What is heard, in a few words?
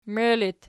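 "mei" locative, Archi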